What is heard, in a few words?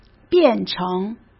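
"变成", Mandarin Chinese; hsk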